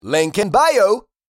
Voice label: Link in bio social media male; shorts sound effects